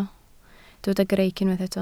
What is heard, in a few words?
"greic", Welsh